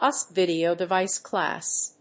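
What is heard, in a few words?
"USB video device class", English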